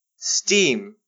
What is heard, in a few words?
"steam", English